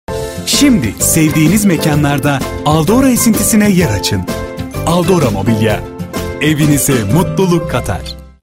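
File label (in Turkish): enerjik tempolu, seslendirme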